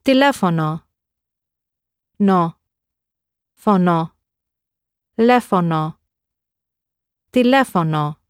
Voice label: "tilefono", Greek